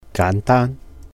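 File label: "gáan dāan", Cantonese; simple; 簡單; holidays and festivals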